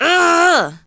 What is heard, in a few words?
angry female